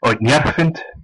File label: please, West Flemish